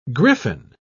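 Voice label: "gryphon", British English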